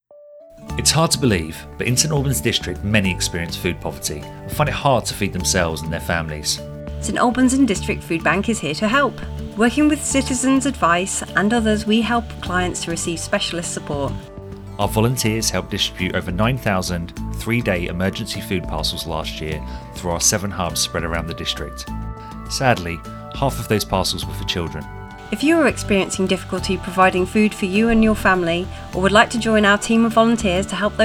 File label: Advert